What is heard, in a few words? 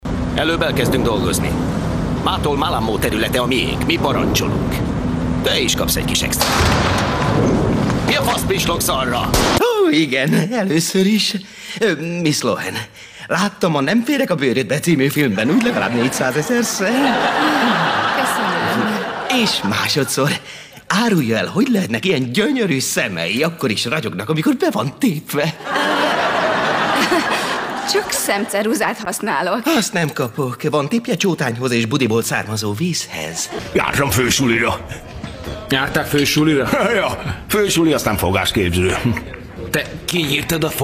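doblaje, Hungarian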